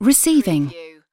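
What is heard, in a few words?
"receiving", British female; lynne publishing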